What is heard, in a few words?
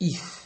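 "if", Nigerian Pidgin, male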